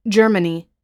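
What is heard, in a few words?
"germany", US English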